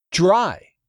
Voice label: "DRY", English; 3000 words